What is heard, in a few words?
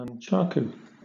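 "nunchaku", British English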